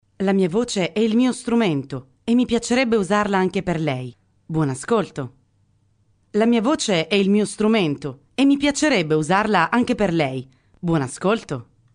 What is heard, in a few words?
It female; italian